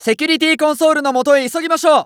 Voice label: Announce 2nd Safe Area Ready, JPN; Eternal Return